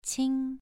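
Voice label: "qing", tone 2, pronunciation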